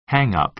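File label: "hang-up", American English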